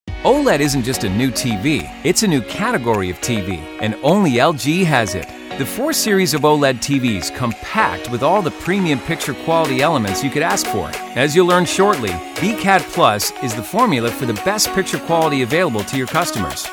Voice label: E-Learning Employee Training and Installation